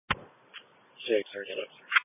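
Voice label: Police Patrol Dispatch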